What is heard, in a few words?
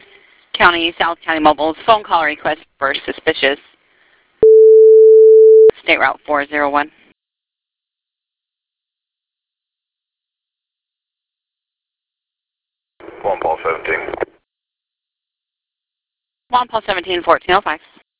radio dispatch